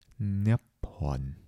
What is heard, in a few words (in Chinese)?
"涅槃", 台城, 男